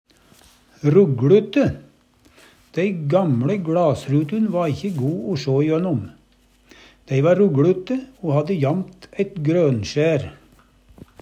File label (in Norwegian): "rug Lute", Numedalsmål